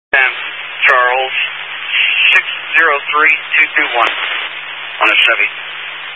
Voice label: Highway Patrol, Phoenix Metro West Area, scanner

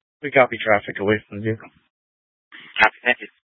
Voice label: Fire Departments Mesa Simulcast; TOPAZ

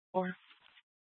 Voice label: Dispatch, scanner; Mesa PD Dpt; 4 Sprstn Dispatch

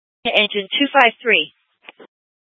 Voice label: Fire Departments, scanner

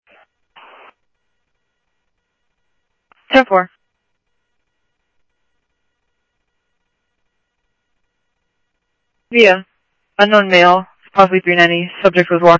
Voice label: Phoenix PD, scanner audio; ThompsonPeakHigh

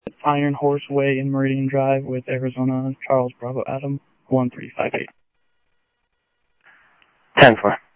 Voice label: scanner audio, Sheriff; South Scottsdale; MCSO - EAST; Sheriff-EastCnty

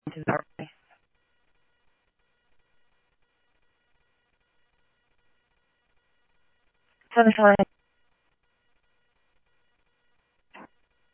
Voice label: Simulcast Police Dep, scanner audio trunk; Mesa Simulcast; MESA PD 1 Fiesta; MesaPoliceDep

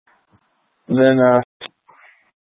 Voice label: Light Rail Emergency, scanner

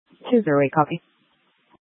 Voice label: Dispatch, scanner; Paradise Valley PD; H- Scottsdale

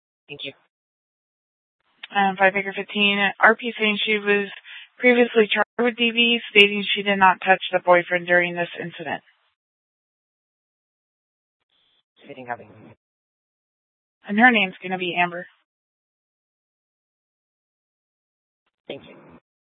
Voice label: Mesa PD Fiesta Dispatch; 1 Fiesta Dispatch

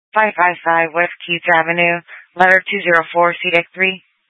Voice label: FD Dpts VHF 156.240 Patch

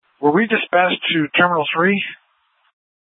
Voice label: Fire, scanner; Regional Wireless Cooperative (RWC) - Thompson Peak High; PHX Fire

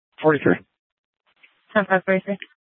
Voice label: Sheriff, scanner P25; Shrf Lakes

